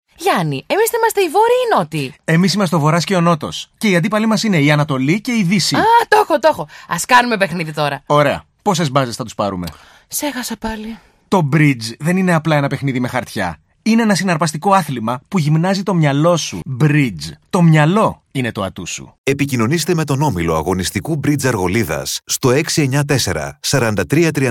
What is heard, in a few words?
Radio Spot; μπριτζ μαθήματα